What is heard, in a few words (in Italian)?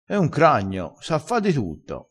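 "cragno", dialettale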